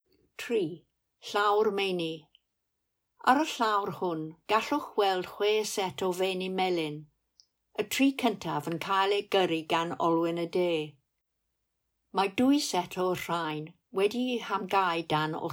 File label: Mill Welsh; Melin Heli Caeriw; Castell Caeriw; taith sain